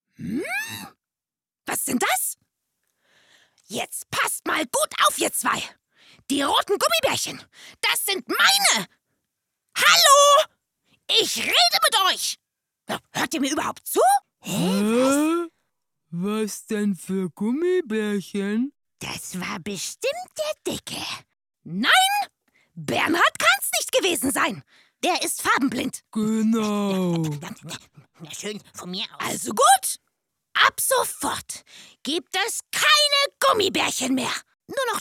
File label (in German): Gummibaeren Trickstimme